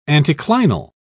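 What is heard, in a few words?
"anticlinal", English, British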